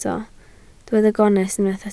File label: "gonest", Welsh